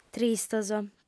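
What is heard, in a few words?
"tristos", Sardinian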